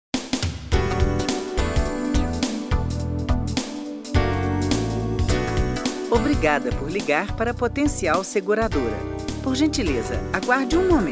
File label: portuguese brazilian ivr, voice actors